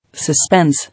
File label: "Suspense", English